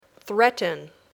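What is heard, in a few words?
"threaten", English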